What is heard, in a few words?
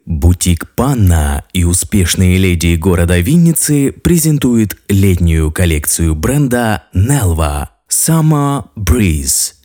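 Russian, male announcer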